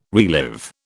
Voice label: "relive", British